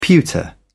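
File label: "pewter", English, British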